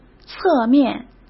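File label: "侧面", Mandarin Chinese; hsk; chinese-flashcards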